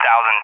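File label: "1000s", English, USA; Numbers; DCS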